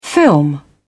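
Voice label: "film over", British English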